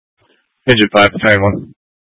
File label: Fire Dispatch, Scanner audio recording